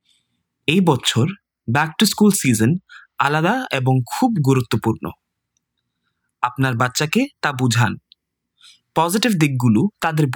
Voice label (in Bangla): Message Bangla